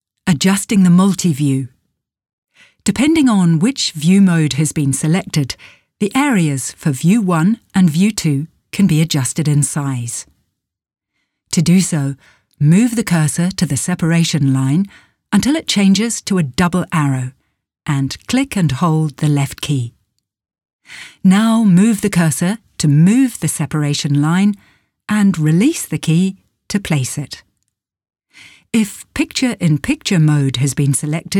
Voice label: elearning software

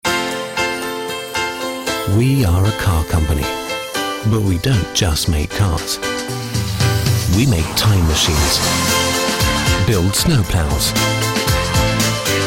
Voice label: tv commercial

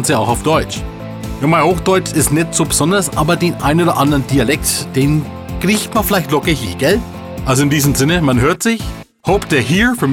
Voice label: voice acting

